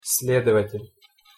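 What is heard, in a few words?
"sledovatel", Russian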